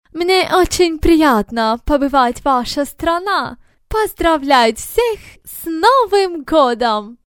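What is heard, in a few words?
foreign woman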